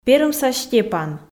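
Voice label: "перымса степан", Komi, female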